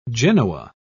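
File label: "genoa", British English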